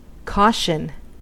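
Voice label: "caution", American English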